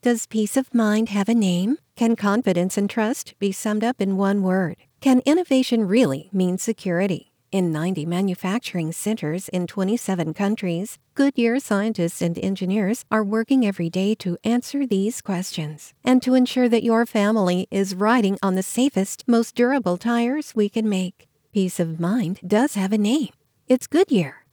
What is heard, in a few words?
Timed Commercial